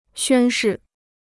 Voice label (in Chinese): "宣誓", Chinese